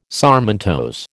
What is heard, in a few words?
"sarmentose", American